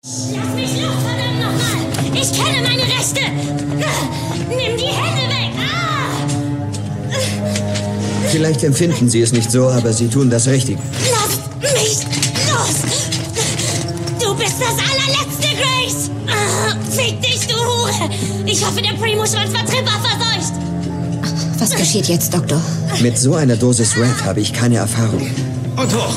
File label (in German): Aggressiv